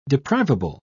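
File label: "deprivable", English, British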